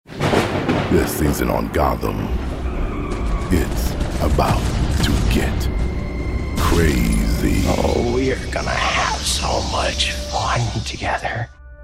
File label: men promos